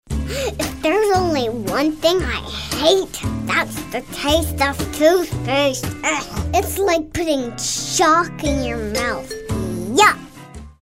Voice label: attitude